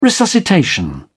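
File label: "resuscitation", English, British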